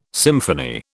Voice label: "symphony", British

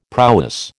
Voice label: "prowess", American

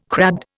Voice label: "crabbed", Indian

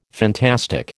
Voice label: "fantastic", American

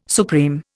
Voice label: "supreme", Indian

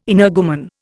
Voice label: "energumen", Indian

